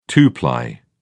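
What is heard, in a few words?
"two-ply", English, British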